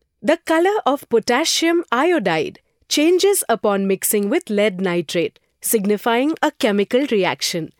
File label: English E-Learning